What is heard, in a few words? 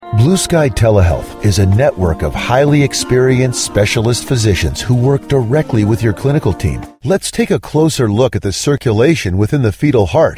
english american voice over male narrator